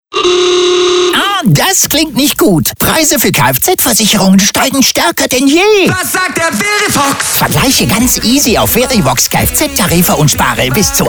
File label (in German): Funk, radiospot; Radiowerbung; Verivox